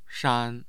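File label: "shan1", Mandarin Chinese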